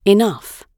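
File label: "enough", British English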